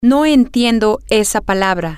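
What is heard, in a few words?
i dont understand that word, spanish, female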